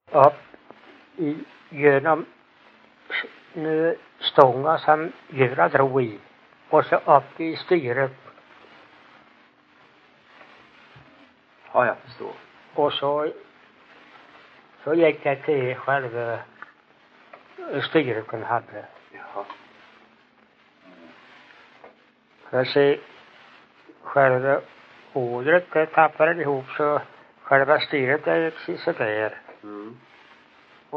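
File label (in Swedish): Ingatorp Småland, dialekter; Folkminnen; Svenska sagor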